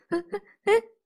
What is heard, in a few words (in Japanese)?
えっえっええっ！？(ビビり声)